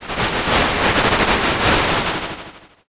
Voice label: whisper